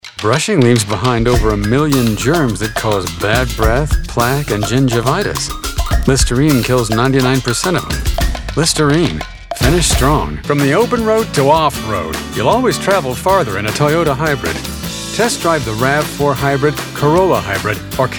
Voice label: Commercial, vo

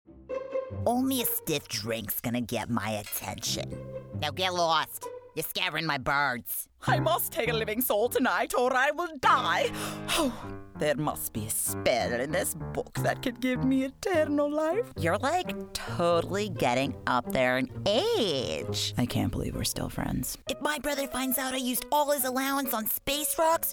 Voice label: Animation

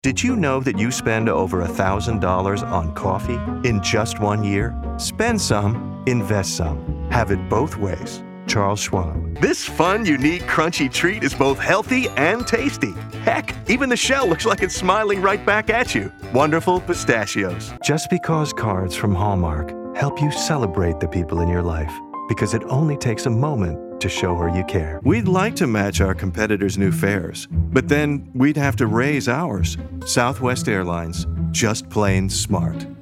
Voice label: Commercial

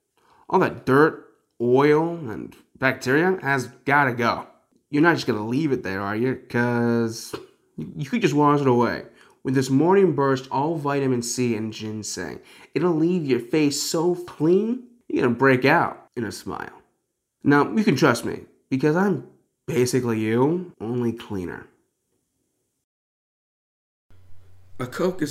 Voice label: Commercial

